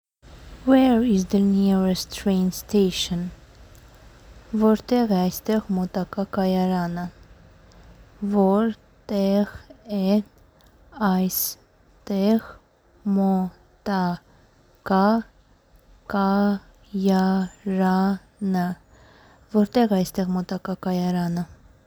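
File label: English "Where is the nearest train station", Armenian "Vortekh e aystegh motaka kayarany"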